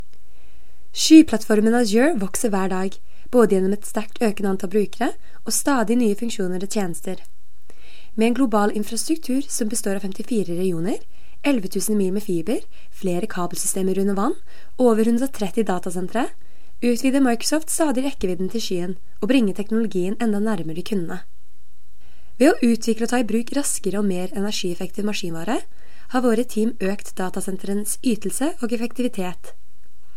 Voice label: Norwegian, Scandinavian, Female, Studio, 20s-30s, voiceover; Based in Norway